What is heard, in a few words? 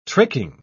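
"tricking", British English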